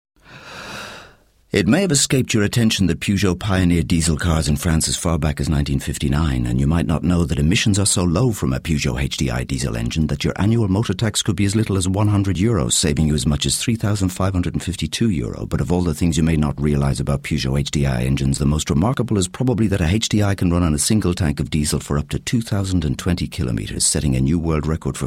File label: Radio; Peugeot HDi; 40s Radio